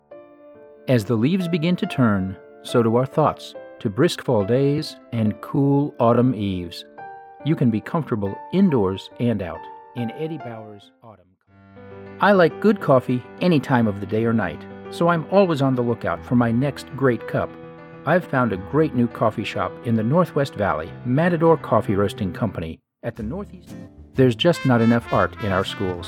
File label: Commercial VO